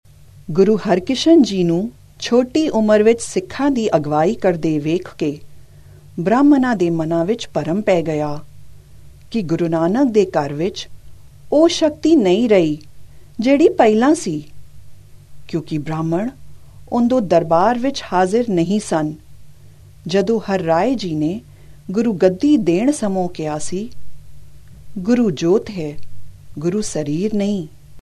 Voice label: Punjabi F